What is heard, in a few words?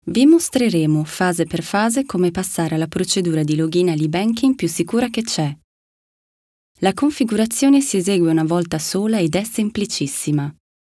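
Italian Corporate; GoLocalise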